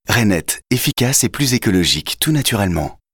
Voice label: Voix rassurante affirmee signature TV; RAINETT

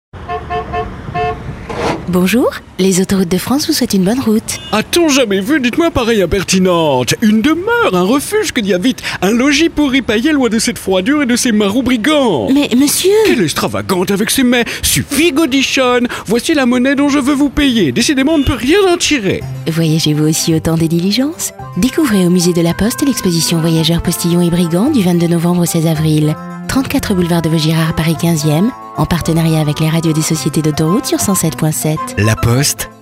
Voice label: Pub 2 tons Ton snob et signature droite; LA POSTE

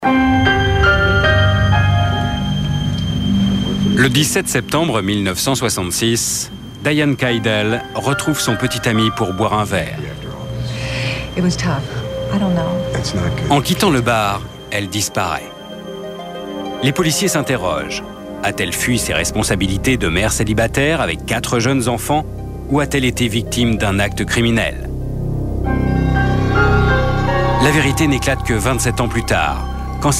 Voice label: Voix off Doc de choc

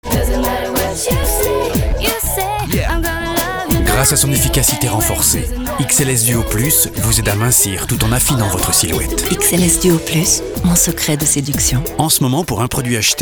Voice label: TV Duo Souriant Sympa et Avenant

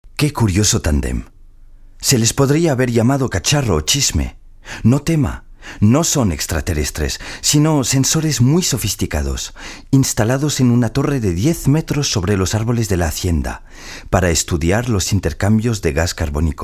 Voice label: Espagnol; Expo CARBONE

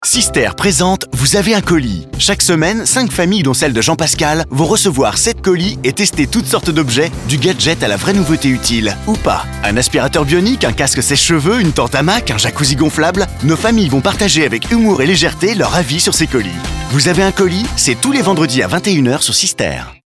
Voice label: spot radio "Vous avez un colis" Voix jeune dynamique medium

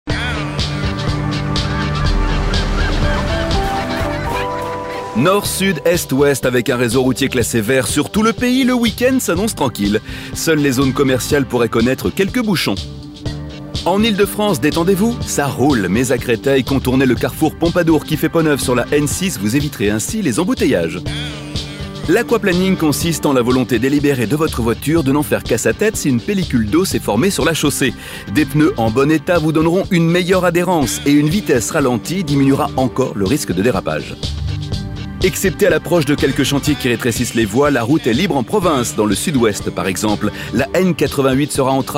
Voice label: Trafic info

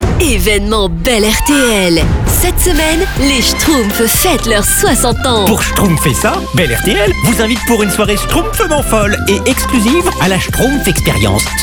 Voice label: péchue promo très souriante; LES SCHTROUMPFS

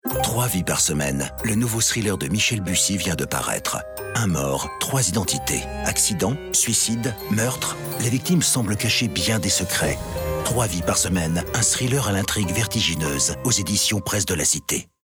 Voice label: Pub Livre; Michel Bussi

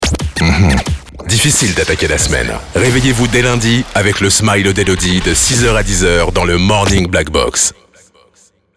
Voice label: Habillage TV Radio Morning FR; Blackbox

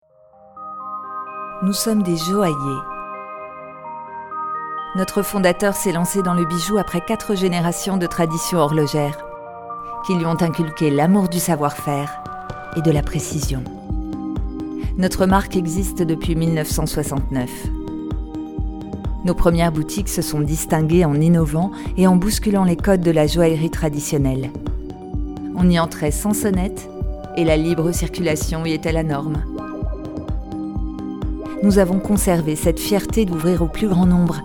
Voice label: institutionnel, elegante confidentielle; GUERIN JOAILLERIE

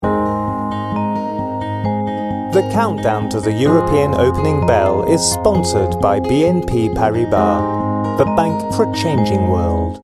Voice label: pub anglais; BNP

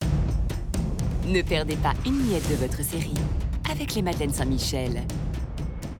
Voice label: Billboard Scene De Menage; Saint Michel; 6s

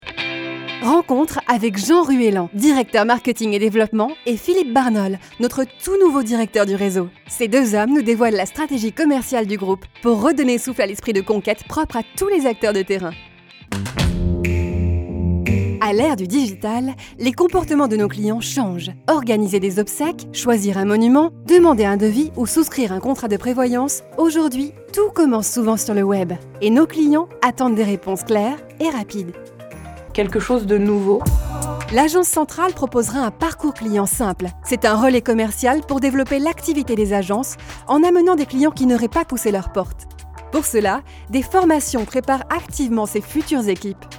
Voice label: corporate MAGAZINE INTERNE JOURNALISTIQUE VOIX EMISSION; OGF

